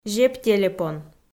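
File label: "зептелепон", Komi, female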